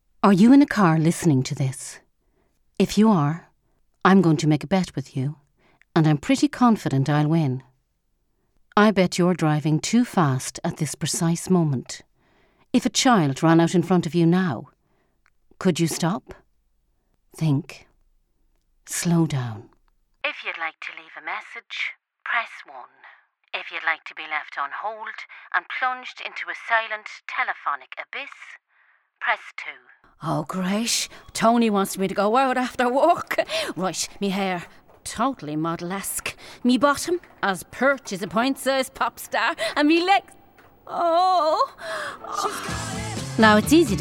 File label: Commercial Reel, Irish voice over; female